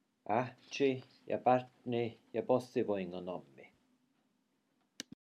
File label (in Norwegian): i faderen sonnen hellige ands navn nordsamisk; samisk kirkeliv